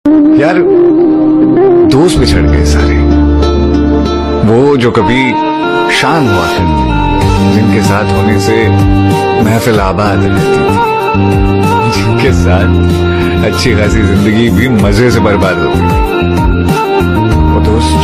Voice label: Shayari Ringtone

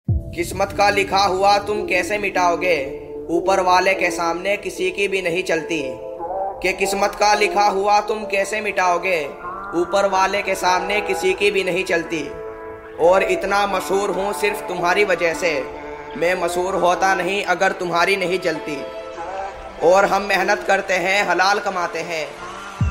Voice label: Over Attitude Shayari Ringtone

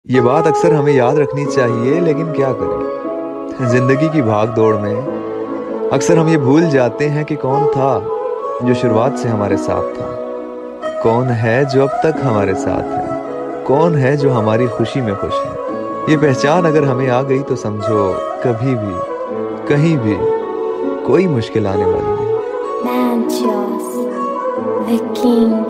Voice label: Sad Shayari Ringtone

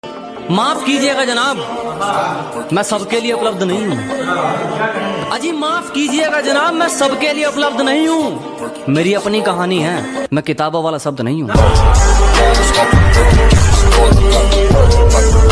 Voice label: Hindi Shayari

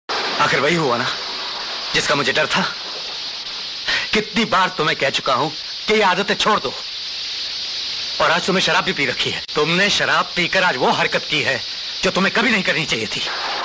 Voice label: Dialogue Ringtone; Tum Nay Shraab Pee Kr Wo Harkat Ki Jo Tumhe Nhai Krni Chaiye Thi

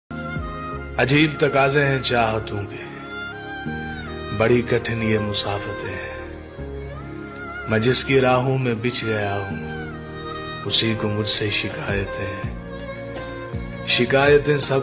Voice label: Shayari Ringtone

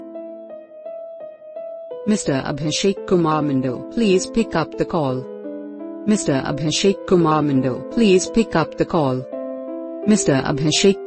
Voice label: Name Ringtone With Music

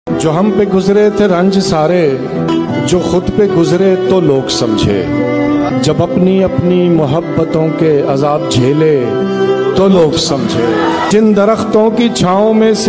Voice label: Shayari Ringtone

